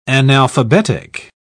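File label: "analphabetic", British English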